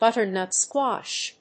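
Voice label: "Butternut squash", English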